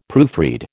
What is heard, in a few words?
"proofread", American